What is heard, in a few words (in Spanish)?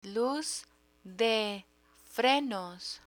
"luz de frenos", Spanish